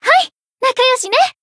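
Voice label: attack, Japanese; tsukiha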